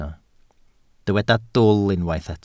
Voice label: "dwl", Welsh

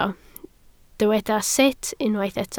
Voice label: "sut", Welsh

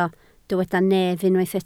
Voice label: "nef", Welsh

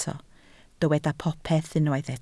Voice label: "popeth", Welsh

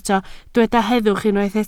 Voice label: "heddwch", Welsh

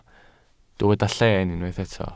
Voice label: "llen", Welsh

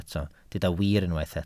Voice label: "wir" Welsh

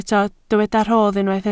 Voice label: "rhodd", Welsh

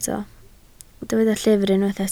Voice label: "llyfr", Welsh

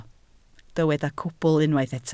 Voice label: "cwbl", Welsh

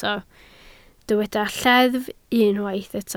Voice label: "lleddf" Welsh

